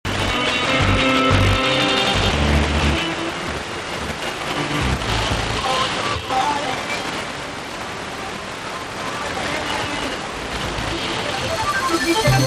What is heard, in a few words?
Radio; RUS; Russkoye Radio Tolyatti